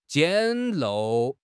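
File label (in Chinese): "简陋", Mandarin Chinese; HSK6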